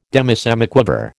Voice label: "demisemiquaver", American

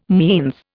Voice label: "means", Indian

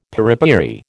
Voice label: "piripiri", American

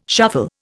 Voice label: "shuffle", Indian

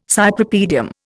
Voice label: "Cypripedium", Indian

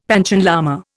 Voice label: "Panchen lama", Indian; Dictionary

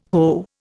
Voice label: "hoe", Indian

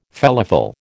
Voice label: "felafel", American

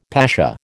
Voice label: "pasha", American

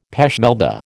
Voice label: "pêche Melba", American

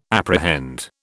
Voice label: "apprehend", British

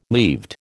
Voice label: "leaved", American

